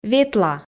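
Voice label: "ветла", Komi, female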